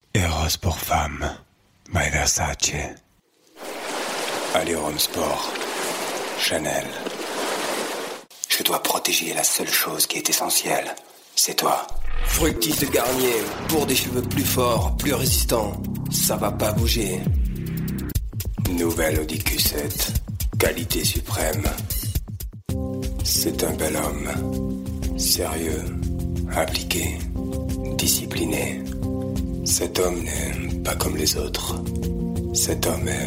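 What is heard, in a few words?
French, General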